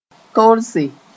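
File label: "torse", Mooré; torch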